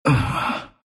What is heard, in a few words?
pain, ru; dota2